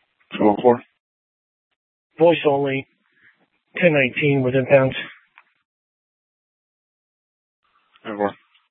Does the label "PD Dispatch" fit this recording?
Yes